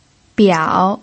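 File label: "biao", pinyin, third tone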